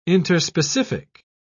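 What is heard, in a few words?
"interspecific", British English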